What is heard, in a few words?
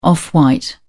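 "off white", British English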